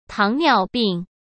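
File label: "糖尿病", Mandarin Chinese; chinese-flashcards; hsk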